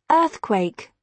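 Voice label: "earthquake", British English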